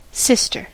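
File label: "sister", English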